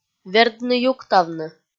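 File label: "вердны-юктавны", Komi-Zyrian, female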